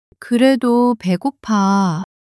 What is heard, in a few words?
sulk, monologue